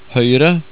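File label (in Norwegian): "høyre" Norwegian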